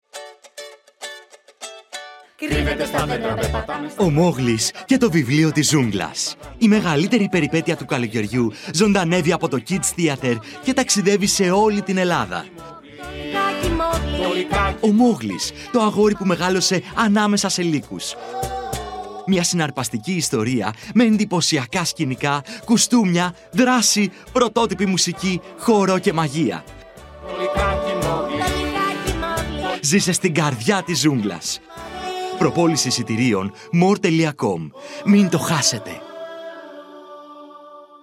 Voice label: Radio Spot; Moglis; μόγλης